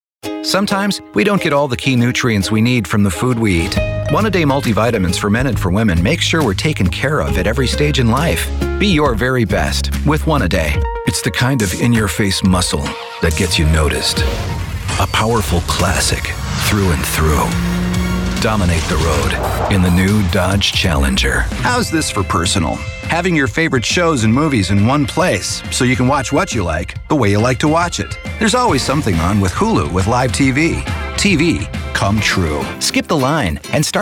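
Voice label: Commercial, real people male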